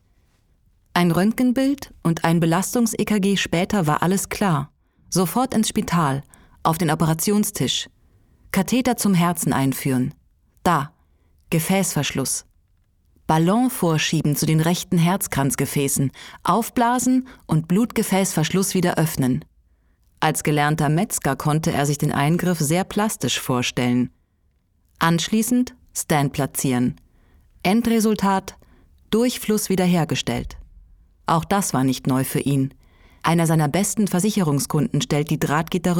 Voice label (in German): reportage herzinfarkt; LOFT VOICES